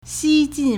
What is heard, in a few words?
"xi1jin4", Chinese